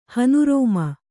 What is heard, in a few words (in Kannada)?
"ಹನುರೋಮ", kannada